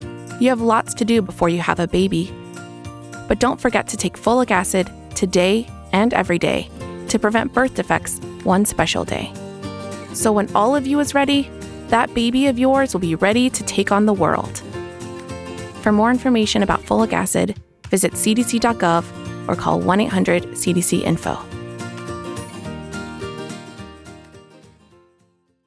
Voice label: Folic Acid PSA English